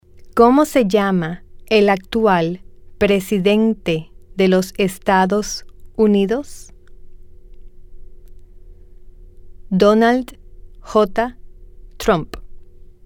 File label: Question, Spanish